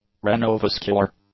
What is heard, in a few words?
"renovascular", English; adjectives